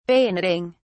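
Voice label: "bayoneting", English, British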